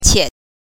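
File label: "qie", Mandarin Chinese, neutral tone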